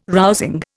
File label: "rousing", Indian